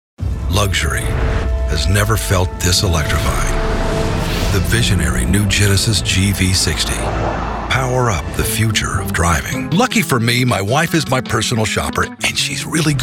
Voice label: commercials